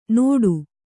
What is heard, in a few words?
"ನೋಟು", kannada